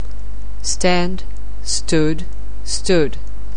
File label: "stand", anglais